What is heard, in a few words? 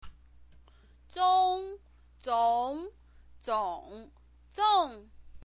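"zong", Mandarin Chinese pinyin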